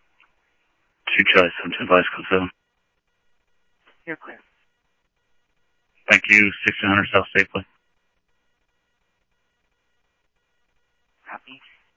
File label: Mesa Simulcast MESA PD Mesa Police Dep, scanner audio; MESA PD 1 Fiesta